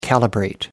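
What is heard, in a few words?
"calibrate", English, British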